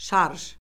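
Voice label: "şarj", Azerbaijani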